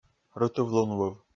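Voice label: "рытыв-лунвыв", Komi, male; kpv audio dict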